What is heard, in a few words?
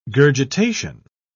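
"gurgitation", British English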